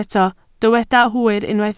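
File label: "hwyr" Welsh, spoken word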